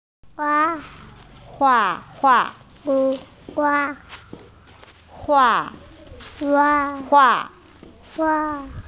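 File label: "drawing", hearing impaired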